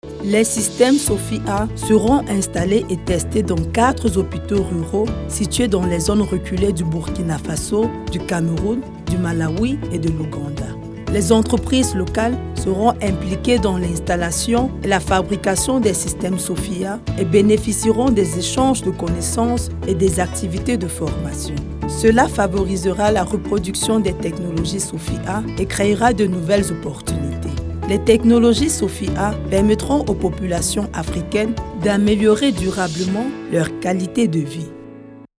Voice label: FR African